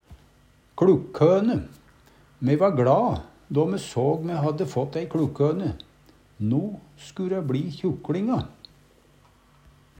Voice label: kLukkhone, Numedalsmål; Me va gLa, då me såg me hadde fått ei kLukkhøne. No sku dæ bLi kjukLinga.

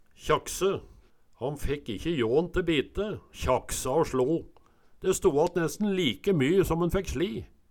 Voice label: kjakse, Numedalsmål

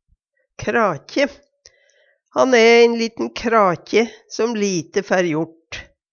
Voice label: krakje, Numedalsmål; Substantiv hankjønn

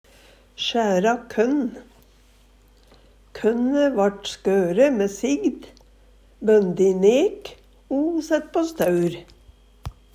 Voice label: "sjæra kønn", Numedalsmål; Kønne vart skøre mæ sigd, bønde i nek o sett på staur.

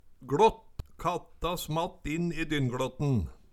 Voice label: "gLått", Numedalsmål

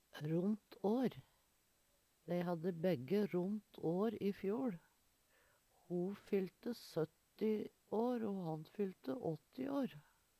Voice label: ront ar, Numedalsmål; Eksempel på bruk Dei hadde begge ront år i fjoL. Ho fyllte 70 år o han fyllte 80 år.

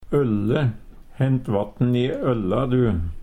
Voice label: olle, Numedalsmål; Substantiv hokjønn

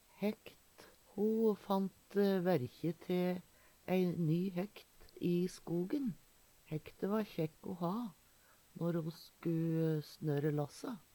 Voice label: "hækt", Numedalsmål; Ho fant verkje te ei ny hækt i skogen. Hækte va kjækk o ha når ho skulle snøre lasse.

